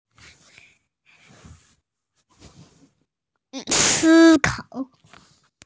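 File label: "think", Mandarin Chinese, female; si1kao3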